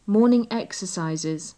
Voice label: morning exercises, english